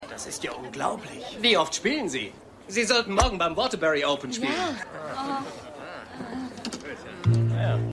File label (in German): Staunender Zuschauer Zuschauerin; Happy Gilmore